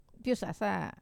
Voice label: "posasa", to lift, wamesa

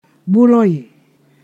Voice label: "spouse", Ponosakan

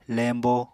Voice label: "lembo", Apatani

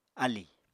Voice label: "alì", Apatani

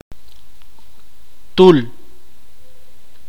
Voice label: "lleno", itza

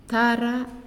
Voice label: "Hear", Naasioi

